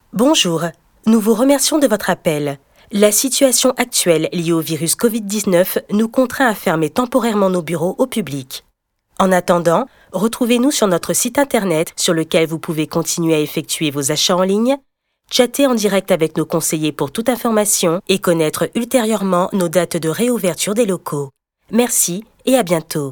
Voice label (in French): Messagerie vocale bureaux temporairement fermés redirection sur site internet; COVID-19